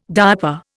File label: "diaper", Indian